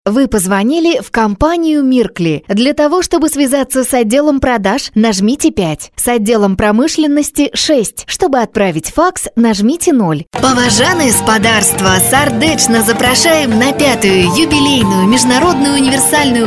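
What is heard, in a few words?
Belarusian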